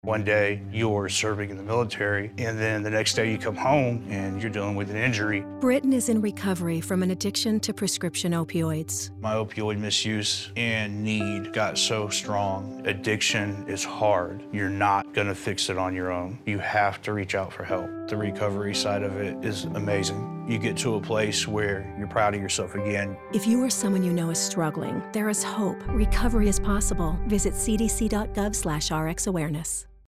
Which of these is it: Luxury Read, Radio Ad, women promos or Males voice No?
Radio Ad